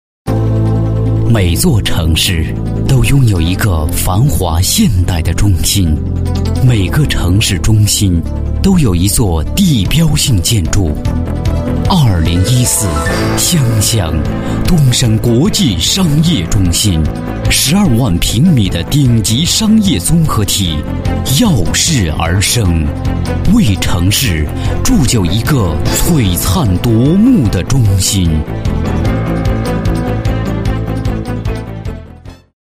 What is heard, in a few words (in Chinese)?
广告 地产 大气; 东山国际商业